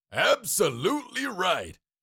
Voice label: Cartoon lion voice; absolutely right; Cartoon Voice Sound Effects